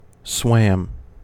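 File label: "swam", American English; pronunciations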